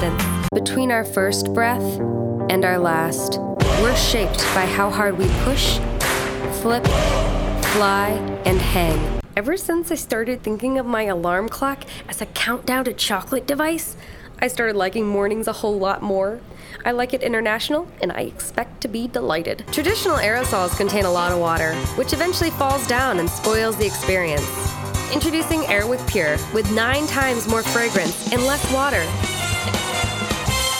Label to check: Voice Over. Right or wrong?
Right